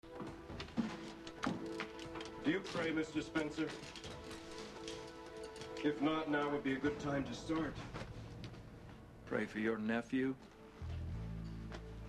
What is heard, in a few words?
threat